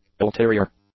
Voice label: "ulterior", English; adjectives

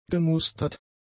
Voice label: "gemustert", German; adjectives

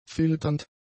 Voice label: "filternd", German; adjectives

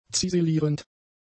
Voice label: "ziselierend", German; adjectives

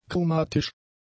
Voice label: "chromatisch", German; adjectives

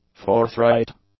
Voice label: "forthright", English; adjectives

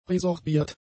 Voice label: "resorbiert", German; adjectives

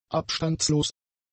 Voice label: "abstandslos", German; adjectives

